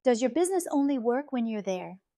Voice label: voice calm expressive